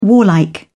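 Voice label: "warlike", English, British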